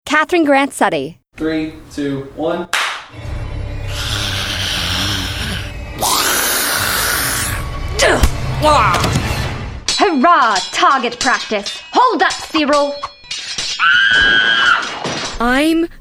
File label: Video Game VO